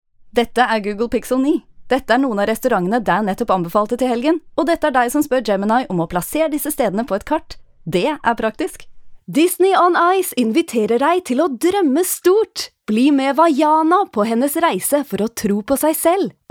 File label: Norwegian Voice Reel